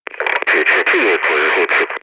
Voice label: "clear", grunt; nimble grunt

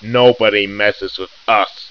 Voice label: gangster taunt

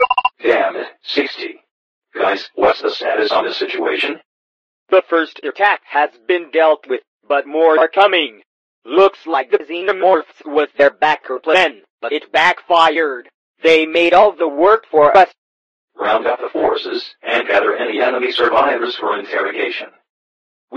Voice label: radio; sven; resistance; dialogs

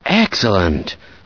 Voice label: cheer; voice commands; scientist

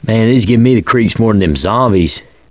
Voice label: chat; l4d2 ellis

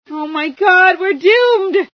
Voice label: silo, female

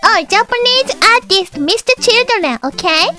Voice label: babble

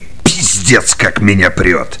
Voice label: Cheer; Kingpin Fargus

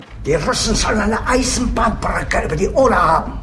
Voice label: chat

